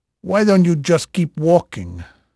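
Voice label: Portuguese male, voice; Pirates Of The Caribbean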